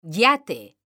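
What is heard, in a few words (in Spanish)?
"YATE" Spanish, female; mekanta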